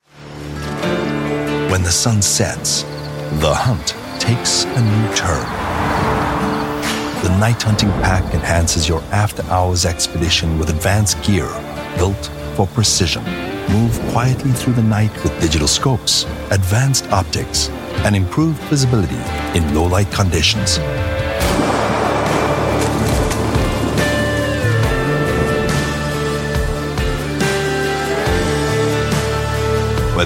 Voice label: south african voiceovers videogame